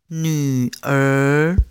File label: chinese pronunciation er